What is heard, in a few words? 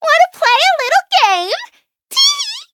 battle, en; Cookie Run